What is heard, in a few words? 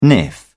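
"niff", British English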